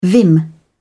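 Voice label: "vim", English, British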